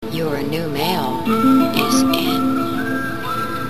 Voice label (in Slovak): sms hlásky en